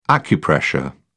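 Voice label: "acupressure", English, British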